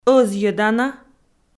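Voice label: "ӧзйӧдана", Komi-Zyrian, female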